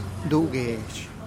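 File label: "du gesh", Teotitlán del Valle Zapotec; lasso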